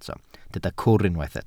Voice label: "cwr", Welsh

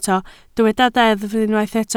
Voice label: "deddf", Welsh

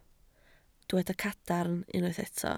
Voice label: "cadarn", Welsh

